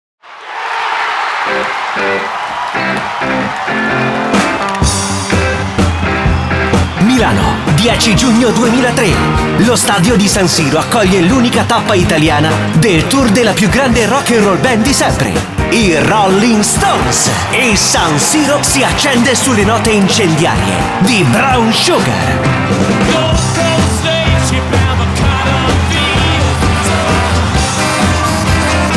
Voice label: Promo